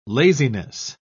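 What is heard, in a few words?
"laziness", English, British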